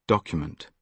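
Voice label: "document", British English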